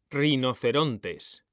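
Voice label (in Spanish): "rinocerontes", male; mekanta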